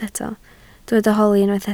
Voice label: "holi", Welsh

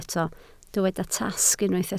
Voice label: "tasg", Welsh

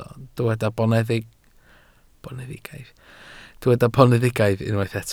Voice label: "boneddigaidd", Welsh

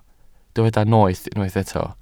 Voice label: "noeth" Welsh

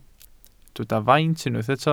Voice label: "faint", Welsh

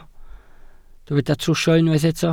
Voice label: "trwsio", Welsh

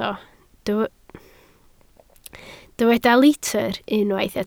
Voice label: "litr", Welsh

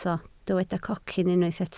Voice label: "cocyn", Welsh

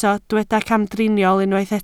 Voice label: "camdriniol", Welsh

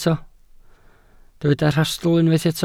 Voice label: "rhastl" Welsh

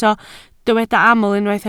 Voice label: "aml", Welsh

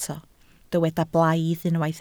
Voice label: "blaidd", Welsh

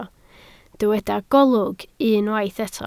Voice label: "golwg", Welsh

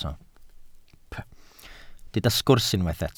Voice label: "sgwrs" Welsh

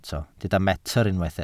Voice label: "metr", Welsh

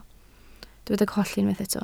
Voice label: "colli", Welsh

